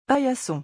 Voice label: "paillasson", French